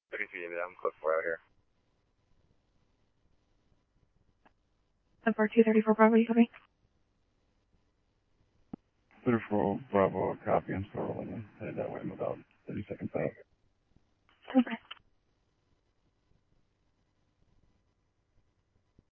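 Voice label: scanner, Phoenix Police Department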